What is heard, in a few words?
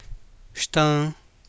"xtaan", copala triqui